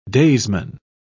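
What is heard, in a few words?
"daysman", English, British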